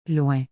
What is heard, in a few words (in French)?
"loin", Canadian French; Québec; (far)